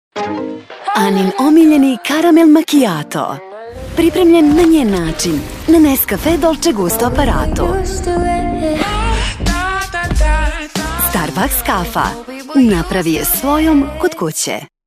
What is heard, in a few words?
TVC, serbian